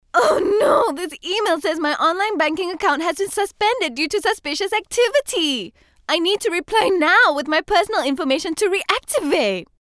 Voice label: ENG Character Radio